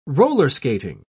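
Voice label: "roller-skating", English, British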